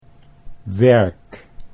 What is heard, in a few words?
"werk", Dutch